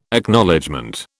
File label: "Acknowledgement", British